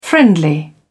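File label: "friendly", British English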